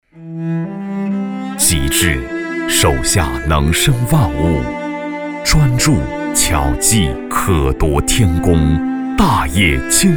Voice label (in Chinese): 男 颁奖; 2017江淮十大杰出工匠评选